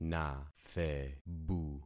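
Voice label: "nathebu", concatenated syllables; Affixing experiment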